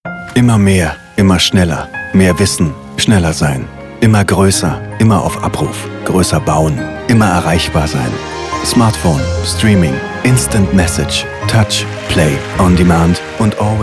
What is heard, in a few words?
Spots